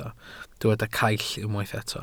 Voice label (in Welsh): "caill", Welsh